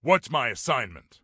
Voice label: Command Assignment, Male; T2 Male 05